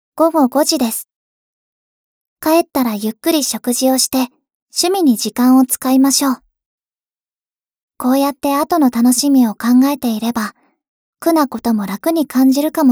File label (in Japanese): 時報ボイス 電脳少女; ボイスコレクション